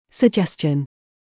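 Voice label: "suggestion", English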